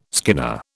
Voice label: "skinner", British

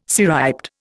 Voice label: "cirriped", Indian

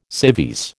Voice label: "civvies", American

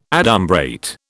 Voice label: "adumbrate", British

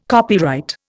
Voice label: "copyright", Indian

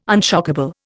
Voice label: "unshockable", Indian

